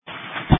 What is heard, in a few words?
Police Dispatch